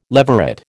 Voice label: "leveret", American